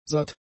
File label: "satt", german; adjectives